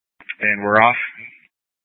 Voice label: Tactical Incidents, scanner; Grand Junction Tactical 3